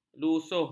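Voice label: "lusuh", Malay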